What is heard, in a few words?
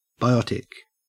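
"biotic", Australian English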